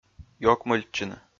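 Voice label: "ёкмыльтчыны", Komi, male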